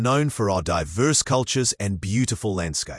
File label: assistant male voice